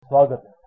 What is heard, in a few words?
welcome, hindi